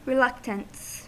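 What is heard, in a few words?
"reluctance", US English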